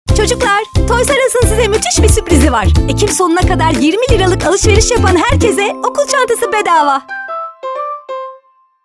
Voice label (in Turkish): reklam; toysrus